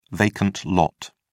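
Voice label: "vacant lot", British English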